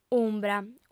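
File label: "umbra", Sardinian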